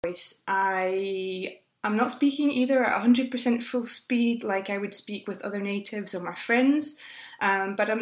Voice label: filled pause